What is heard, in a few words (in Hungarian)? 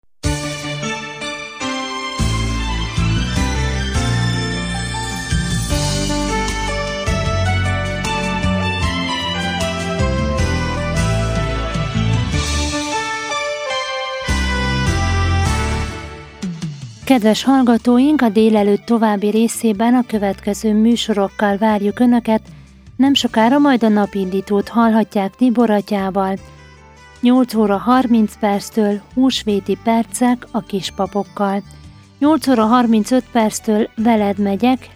Musorismerteto; vasárnap reggel; APRILIS